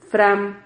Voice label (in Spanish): "fram", Silabas